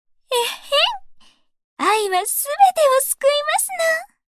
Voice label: Voice victory